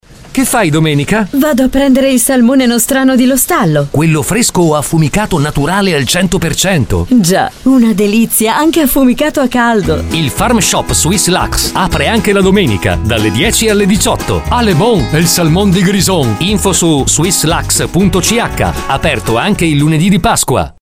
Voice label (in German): Radiowerbung; SWISS LACHS